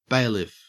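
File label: "bailiff", Australian English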